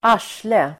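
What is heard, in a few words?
"arsle", Swedish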